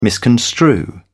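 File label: "misconstrue", British English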